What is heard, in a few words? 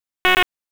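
Police Southwest District Dispatch; Raleigh; wake; p25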